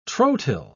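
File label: "trotyl", British English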